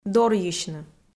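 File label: "дорйысьны", Komi, female; kpv audio dict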